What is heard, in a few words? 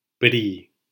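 "pri", Spanish syllable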